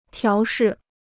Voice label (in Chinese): "调试", Chinese